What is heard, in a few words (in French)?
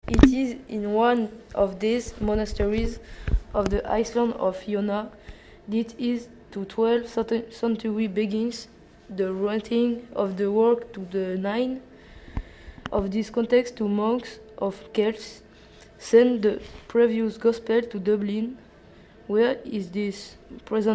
book of kells en anglais